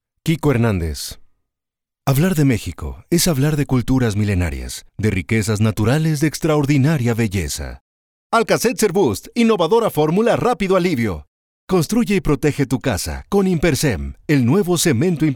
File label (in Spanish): Espanol Mexico